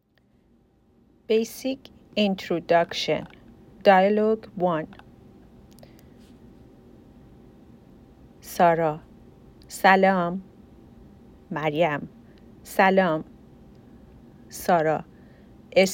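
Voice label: dialogue, Persian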